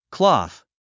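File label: "cloth", English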